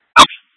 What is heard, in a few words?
Tactical Incidents, scanner